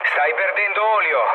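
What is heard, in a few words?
Italian damaged oil mood low, speech; War Thunder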